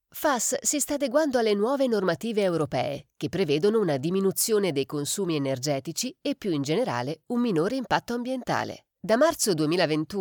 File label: italian explainer videos